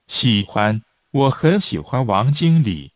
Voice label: Mandarin Conversation